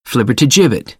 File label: "flibbertigibbet", American